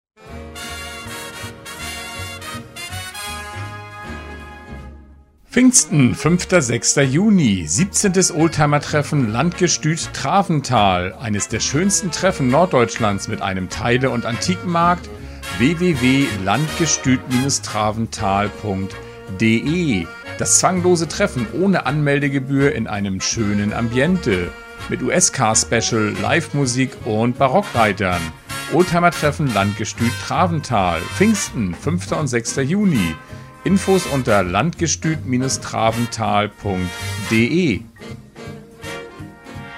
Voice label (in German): Spot; Traventhal; Oldtimer-Treffen